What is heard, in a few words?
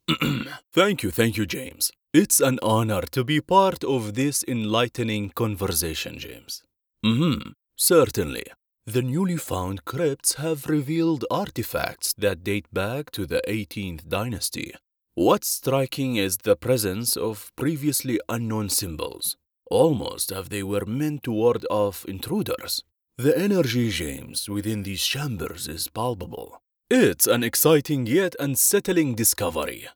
english documentaries